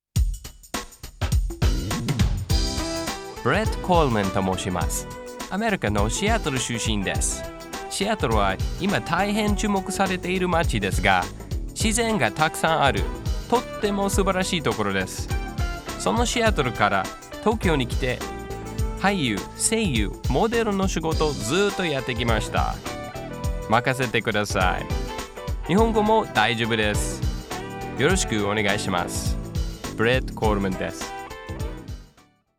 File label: Voice intro Japanese